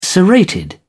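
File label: "serrated", British English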